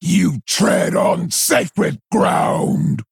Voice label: Female Quilboar Play